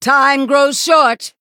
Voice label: Female Human Time

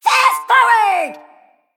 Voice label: Female Mech Attack

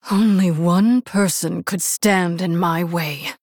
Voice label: Human Female

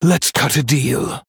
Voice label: Male Human Parrrley

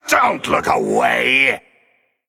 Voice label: Male Undead Attack

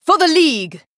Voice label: Female Night Elf Attack; Elise

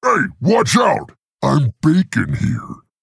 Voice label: Male Quilboar Play

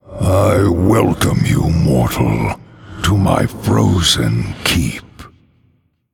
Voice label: Male Undead; The Lich King; greeting

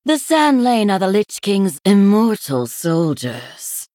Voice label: Female San'layn Emote Picked

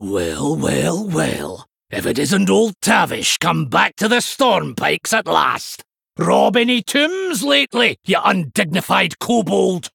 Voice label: Male Dwarf; Vanndar

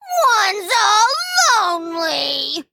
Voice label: Female Imp Play

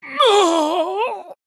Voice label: Male Venthyr Death

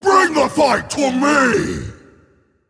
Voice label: Male Pitlord Play

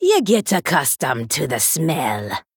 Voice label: Female Troll

